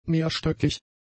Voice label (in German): "mehrstöckig", German; adjectives